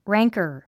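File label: "rancor", English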